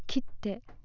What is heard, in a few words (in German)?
"kitte" schneide, japanese accent